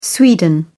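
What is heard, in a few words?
"sweden", British English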